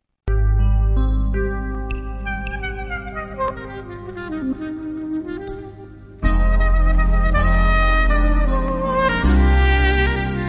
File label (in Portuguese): Amizade Com Saudades, M